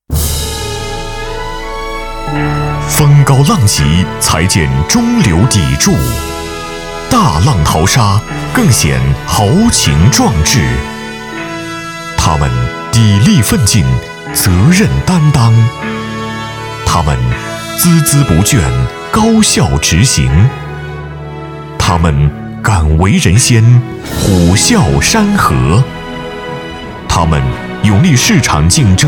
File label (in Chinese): 男 颁奖 大气有感情